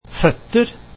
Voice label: feet, norwegian